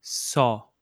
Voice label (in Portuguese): "só", portuguese